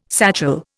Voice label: "satchel", Indian

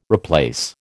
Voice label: "replace", American

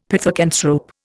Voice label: "pithecanthrope", Indian; Dictionary

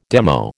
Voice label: "demo", American

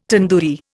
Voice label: "tandoori", Indian

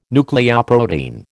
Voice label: "nucleoprotein", American

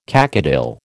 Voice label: "cacodyl", American

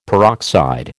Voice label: "peroxide", American

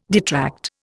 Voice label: "detract", Indian

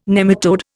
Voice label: "nematode", Indian

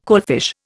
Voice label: "coalfish", Indian

